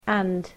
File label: "and", english